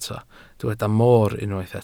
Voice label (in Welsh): "moor", Welsh